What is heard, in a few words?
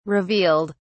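"revealed", British English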